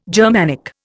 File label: "Germanic", Indian